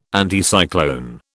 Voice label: "Anticyclone", British